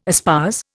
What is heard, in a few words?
"espouse", Indian